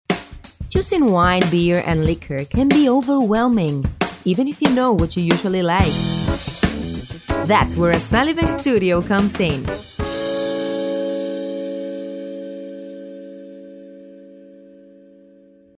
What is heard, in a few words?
english spanish accent